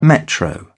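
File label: "metro", English, British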